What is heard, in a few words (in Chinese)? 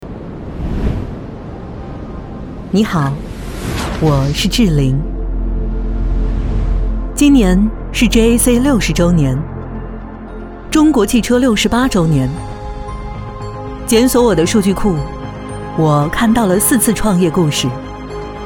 女国 科技感 汽车